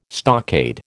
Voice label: "stockade", American